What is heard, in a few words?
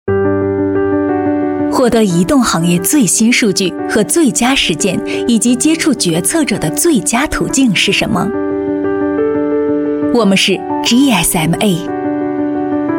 chinese voice over female narrator